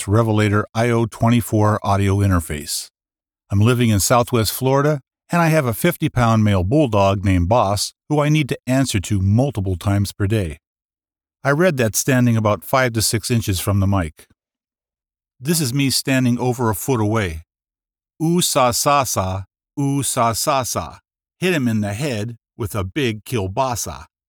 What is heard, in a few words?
Dry Studio Sample